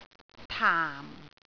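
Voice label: "taam4", Cantonese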